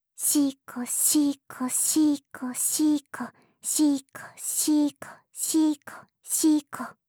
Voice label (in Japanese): シコシコオンリー ふつう